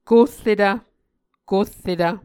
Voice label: "korretv", Muscogee